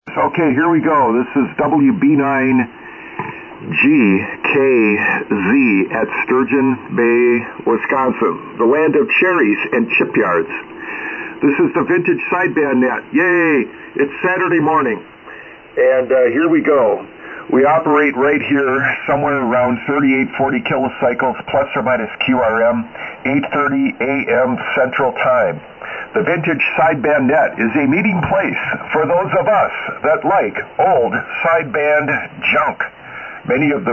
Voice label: Net Control Kenwood TS-830S Shure 444 Mic Al-80 Amp and Loop; Sturgeon Bay, Wi.; Loop Antenna